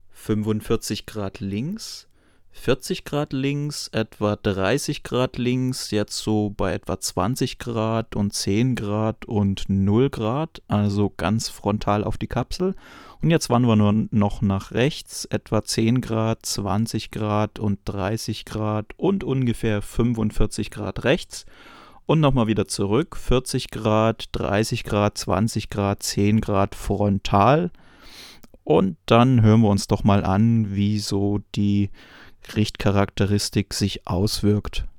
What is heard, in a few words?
off axis; beyerdynamic fox